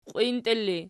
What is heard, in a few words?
"qiwintela", Archi